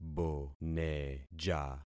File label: "boneja", concat syllables; Affixing experiment